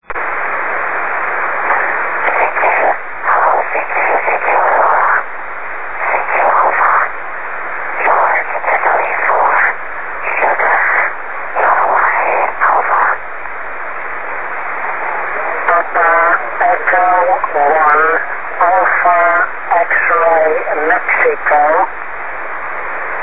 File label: Aurora SSB